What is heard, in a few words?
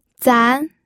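"zan2", Mandarin Chinese pinyin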